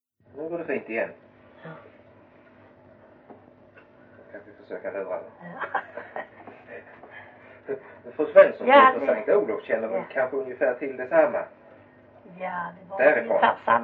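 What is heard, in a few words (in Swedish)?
Ravlunda Skåne, dialekter; Folkminnen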